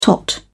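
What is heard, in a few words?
"tot", English, British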